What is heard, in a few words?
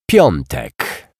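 "Piatek", Polish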